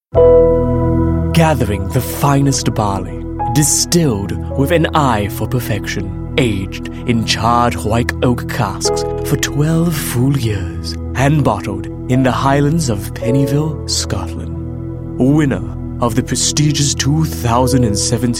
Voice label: Asian English Whiskey